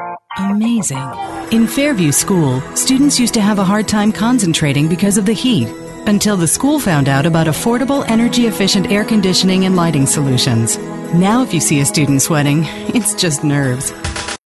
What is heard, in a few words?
warm caring